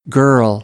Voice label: "girl", American; ɝː - ɜː